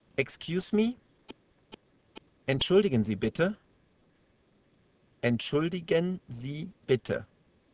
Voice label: German Excuse

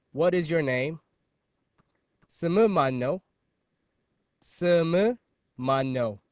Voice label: Amharic Name

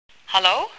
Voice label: Dutch Hello

